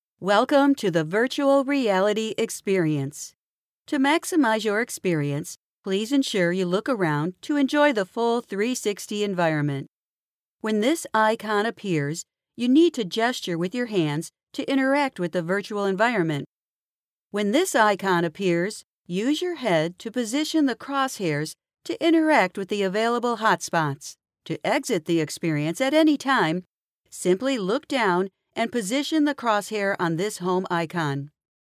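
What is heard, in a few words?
English American, female, voice over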